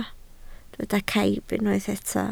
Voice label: "caib", Welsh